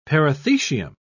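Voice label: "perithecium", British English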